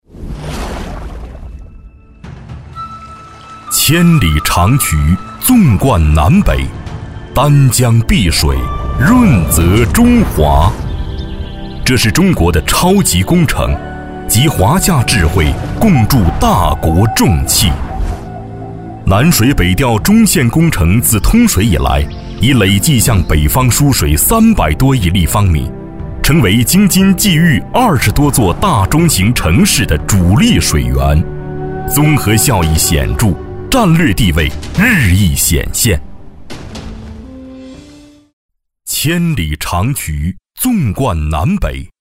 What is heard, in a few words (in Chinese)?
专题 政府 南水北调专题 力度, 男